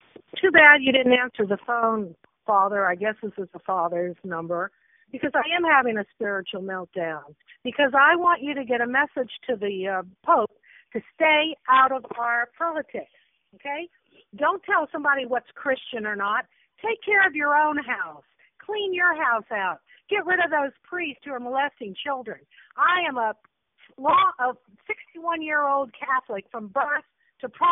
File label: voicemail